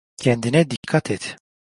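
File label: "kendine dikkat et", Turkish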